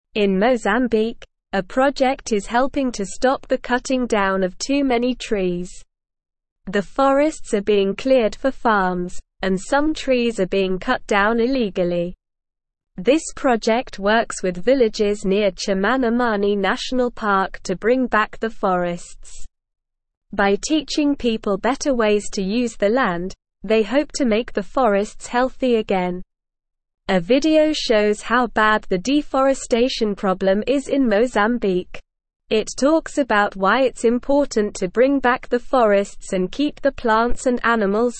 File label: English SLOW Reading; Helping Mozambiques Forests Bringing Back the Trees